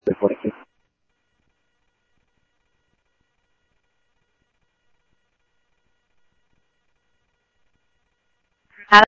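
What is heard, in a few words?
Sheriff West County, scanner audio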